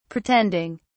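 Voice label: "pretending", British English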